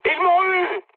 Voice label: aircraft destroyed mood high, speech fr